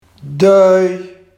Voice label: "däöj", Barghs

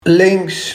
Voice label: "links", Barghs

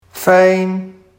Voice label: "fijn", Barghs

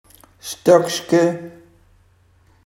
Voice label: "stokske", Barghs

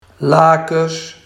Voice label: "lakes", Barghs

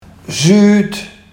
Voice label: "zuut", Barghs

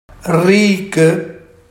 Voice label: "rieke", Barghs

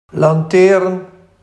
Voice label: "lantaern", Barghs

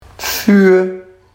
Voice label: "vuur", Barghs

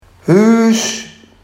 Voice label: "huus", Barghs

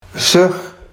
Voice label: "zug", Barghs

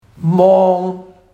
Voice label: "maon", Barghs

